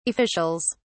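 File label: "officials", British English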